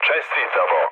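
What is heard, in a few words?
Serbian player killed enemy mood low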